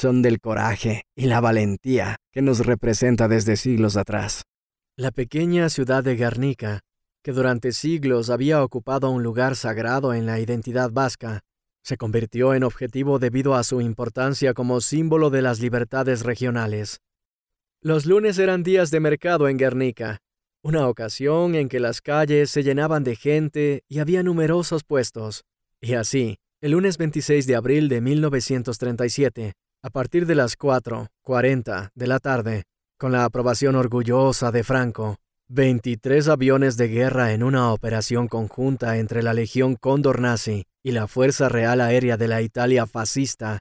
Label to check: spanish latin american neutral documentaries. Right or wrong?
Right